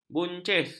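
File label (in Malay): "buncis", Malay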